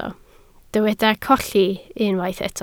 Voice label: "colli", Welsh

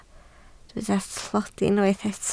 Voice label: "tlodi", Welsh

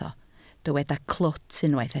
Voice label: "clwt", Welsh

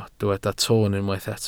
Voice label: "toon", Welsh

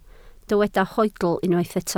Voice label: "hoedl", Welsh

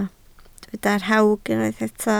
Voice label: "rhawg", Welsh

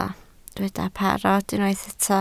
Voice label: "parod", Welsh

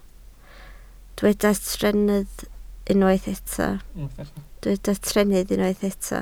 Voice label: "trennydd", Welsh

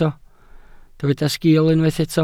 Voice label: "sgiil", Welsh

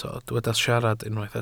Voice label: "siarad", Welsh

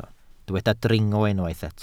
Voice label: "dringo", Welsh

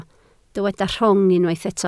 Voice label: "rhwng", Welsh

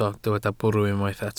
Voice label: "bwrw", Welsh

